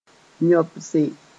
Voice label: "yõbse", moore

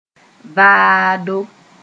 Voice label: "vaado", moore

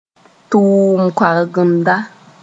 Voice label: "tʋʋm-koagenda", moore; trainer

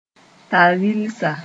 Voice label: "tar rɩlsa", moore

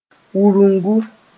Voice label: "wurungu", moore; sth. pierced

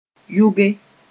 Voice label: "yʋge", moore

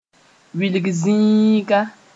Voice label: "wilg zĩiga", Moore

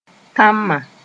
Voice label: "tãma", moore

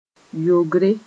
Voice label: "yogre", moore

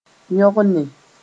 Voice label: "yõgne", moore

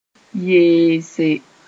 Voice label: "yeese", moore

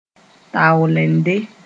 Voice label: "taoolende", moore

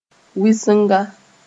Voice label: "wɩsenga", moore

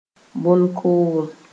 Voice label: "bõn-koom", moore

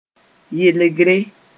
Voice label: "yelgre", moore

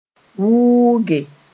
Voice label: "wʋʋge", moore; wet (get)

